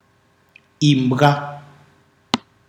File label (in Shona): dog, Ndau